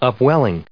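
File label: "upwelling", US English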